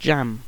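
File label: "jam", British English; pronunciations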